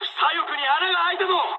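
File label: Japanese left wing mood med; War Thunder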